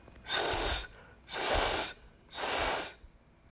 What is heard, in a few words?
S Voiceless